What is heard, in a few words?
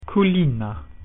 "kitchen", Latin